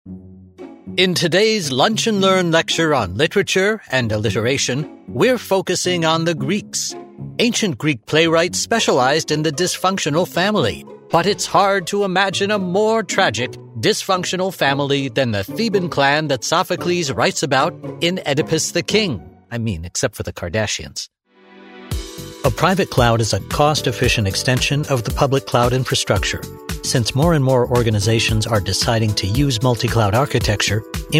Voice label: Elearning